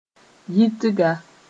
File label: "yitga", Mooré